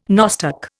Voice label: "nostoc", Indian

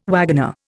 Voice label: "wagoner", Indian

